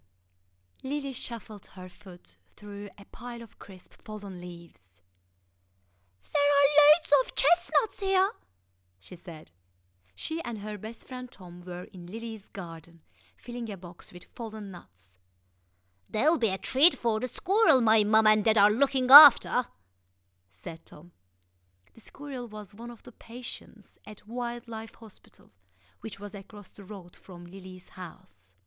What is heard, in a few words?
female, Turkish, English with Turkish accent children's character story; Devine Voices